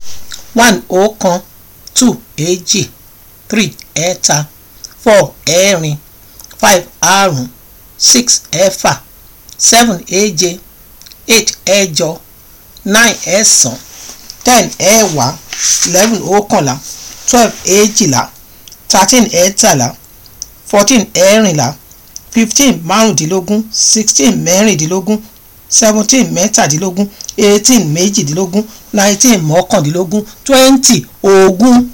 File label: Counting in Yoruba